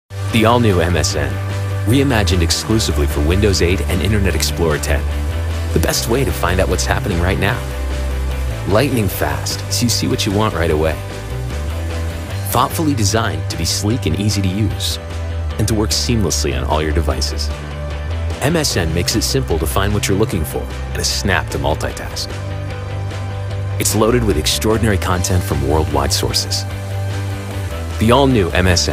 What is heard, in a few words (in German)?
TV Werbespots Jugendfrei; NATIVE PROFISPRECHER